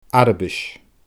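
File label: "Arabais", Scottish Gaelic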